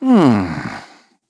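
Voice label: Vox Think, voices heroes English; kingsraid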